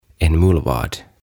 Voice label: "En Mullvad", "Myyrä"

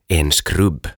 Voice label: "En Skrubb" Komero

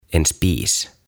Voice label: "En Spis", "Liesi"

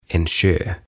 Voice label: "En sjö", "Järvi"

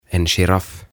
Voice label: "En giraff" Kirahvi

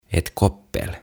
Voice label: "Ett koppel" Talutushihna

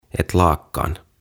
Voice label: "Ett Lakan" "Lakana"

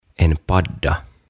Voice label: "En Padda" "Rupikonna"

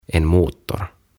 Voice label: "En motor" "Moottori"; OTAVA KONSERNI

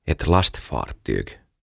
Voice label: "Ett Lastfartyg" "Laiva"

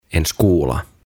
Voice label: "En skola" Koulu

